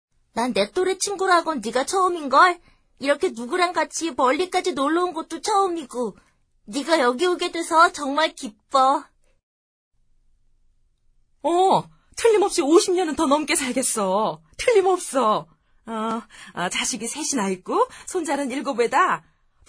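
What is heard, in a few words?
Korean, female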